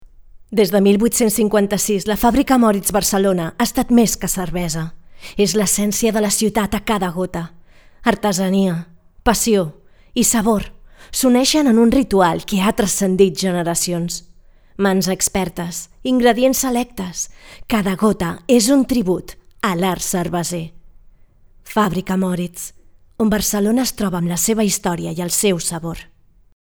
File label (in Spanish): Inteso Apasionado Catalan; Fabrica Moritz Barcelona